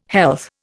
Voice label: "health", Indian